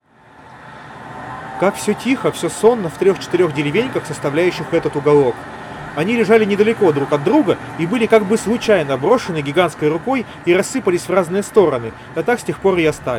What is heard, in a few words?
Recorder noise; Запись на профессиональный рекордер